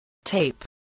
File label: "tape", spelling